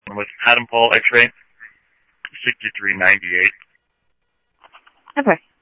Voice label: Police, scanner audio trunk; H-Scottsdale